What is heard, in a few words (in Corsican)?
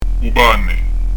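"u pane", Corsican; u b'anè; Son "adouci"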